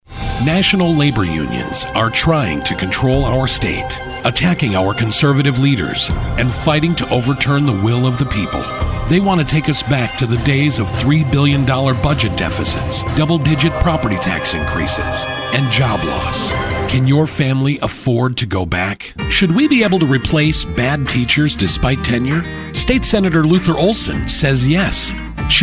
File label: english american political ads; Soy un actor de doblaje de inglés estadounidense